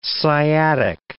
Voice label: "sciatic", English